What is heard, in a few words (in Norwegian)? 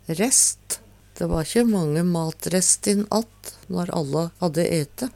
rest, Numedalsmål